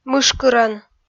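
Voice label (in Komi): "мышкырӧн", Komi, female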